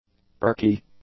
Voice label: "perky", english; adjectives